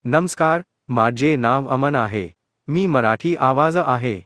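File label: Marathi, India; iOS Sounds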